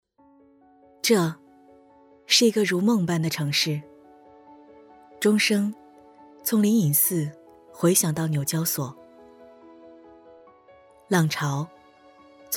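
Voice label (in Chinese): 中文宣传地产宣传片; 杭州融创地产宣传片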